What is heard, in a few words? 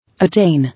"Attain", English